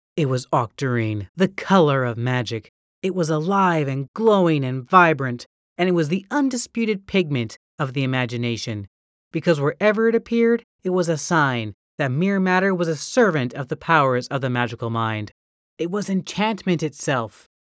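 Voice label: English American, female, voice over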